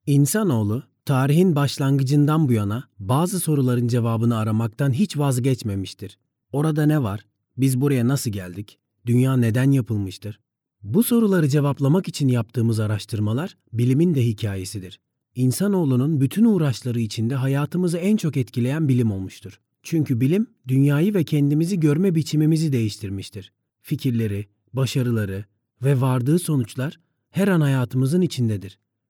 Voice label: male turkish voice over talent narrative